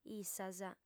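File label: "issas", female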